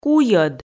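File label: "куйӧд", Komi, female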